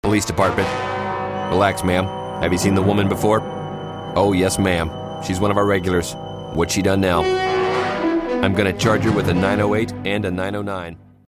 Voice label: deadpan